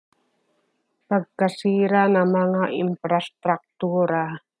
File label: Tagalog; destruction of infrastructures - pagkasira ng mga imprastraktura